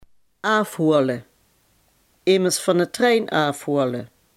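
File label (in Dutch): "afhalen" Limburgish dialect word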